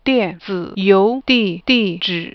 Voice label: e-mail addy, chinese